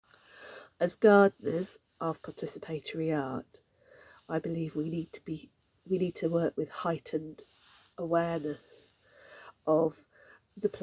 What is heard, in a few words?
voice note